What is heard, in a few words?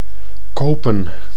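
"kopen", Dutch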